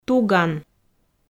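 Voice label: "туган", Komi, female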